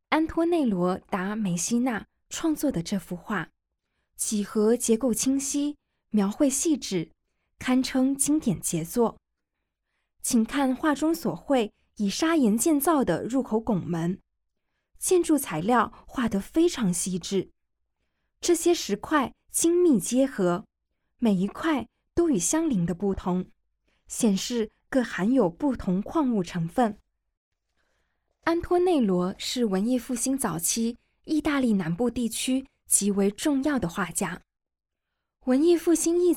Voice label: Mandarin, audio guide; g9-botticelli-to-van-gogh

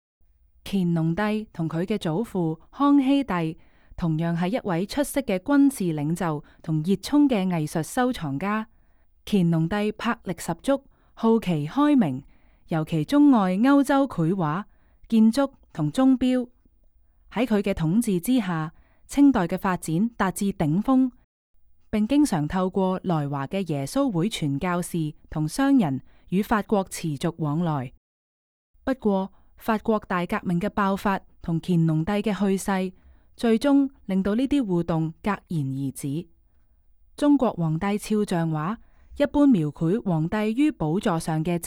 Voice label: Cantonese, audio guide; versailles

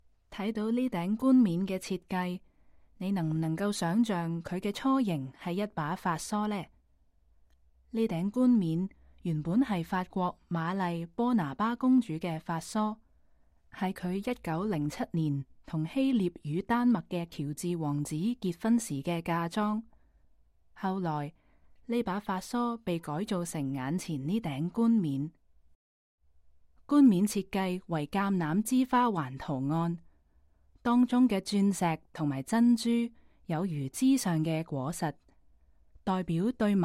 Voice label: Cantonese, audio guide; cartier and women

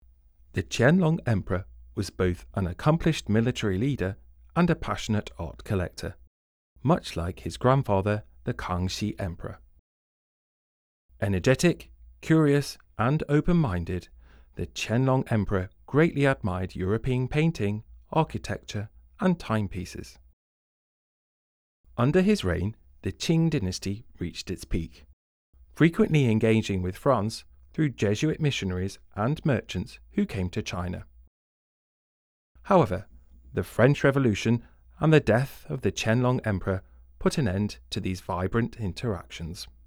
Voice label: English, audio guide

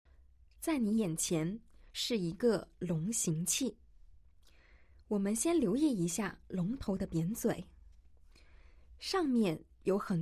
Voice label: Mandarin, audio-guide; gazing at sanxingdui

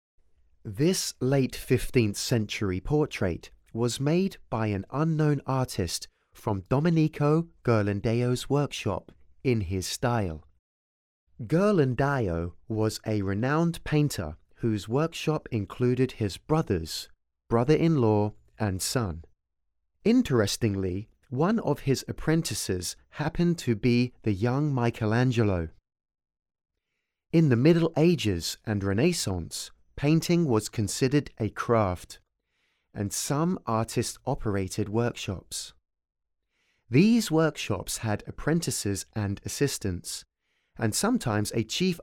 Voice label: English, audio guide

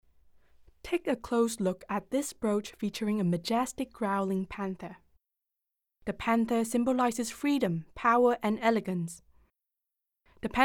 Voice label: English, audio guide

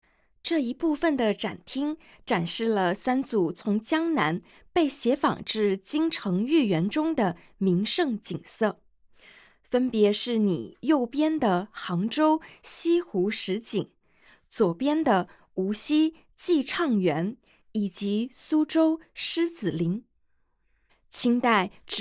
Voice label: Mandarin; yuan ming yuan

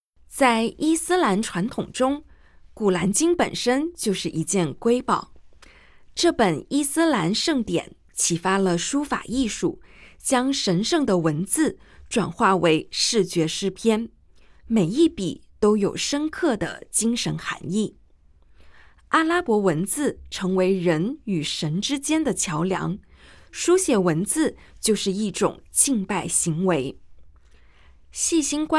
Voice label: Mandarin; 《古兰经》; 第27份副本，哈利勒．本．哈桑抄写; 鄂图曼土耳其; 1742年; 多哈伊斯兰艺术博物馆

